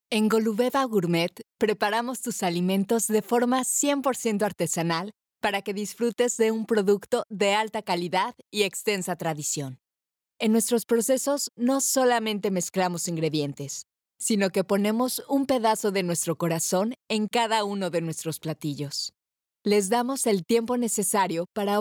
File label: female spanish mexican corporate explainer; voices; golubeva gourmet; devine voices